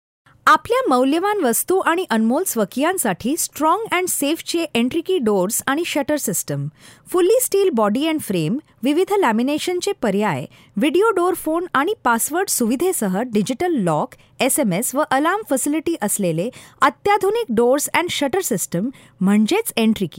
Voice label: marathi